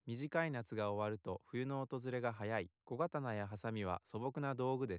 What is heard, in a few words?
male 8kHz